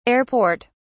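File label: "air port", British English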